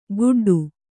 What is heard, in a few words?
"ಗುಡ್ಡು", Kannada